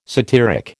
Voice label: "satiric", American

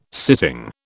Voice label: "sitting", British

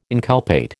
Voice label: "inculpate", American

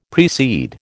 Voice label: "precede", American

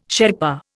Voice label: "Sherpa", Indian

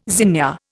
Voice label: "zinnia", Indian

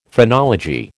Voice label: "phrenology", American

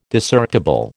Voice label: "Discerptible", American

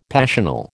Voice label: "passional", American

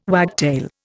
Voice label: "wagtail", Indian

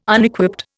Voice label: "Unequipped", Indian

